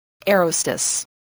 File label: "airhostess", English